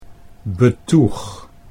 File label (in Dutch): "betoeg", Dutch